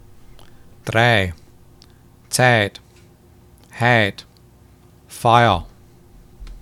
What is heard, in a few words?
ai midwest